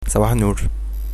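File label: good morning, Egyptian Arabic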